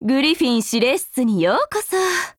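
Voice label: HELLO Japanese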